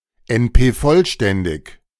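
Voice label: "NP-vollständig", German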